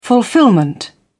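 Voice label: "fulfilment", British English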